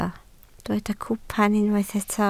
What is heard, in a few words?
"cwpan", Welsh